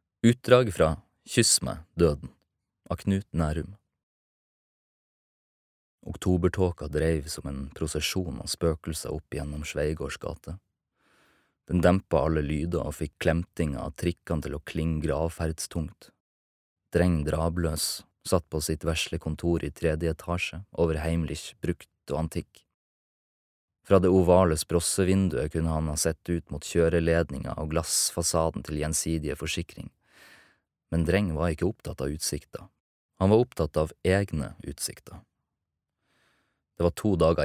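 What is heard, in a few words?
Norwegian audiobook, norsk mann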